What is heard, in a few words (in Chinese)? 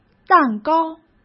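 "蛋糕", Mandarin Chinese; hsk; chinese-flashcards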